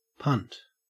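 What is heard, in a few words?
"punt", Australian English; pronunciations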